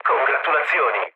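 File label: Italian player killed enemy mood low, speech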